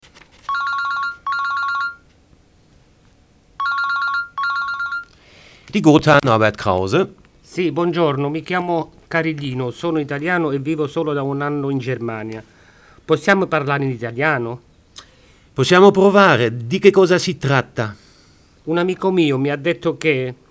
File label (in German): italienisch